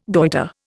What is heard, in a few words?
"goitre", Indian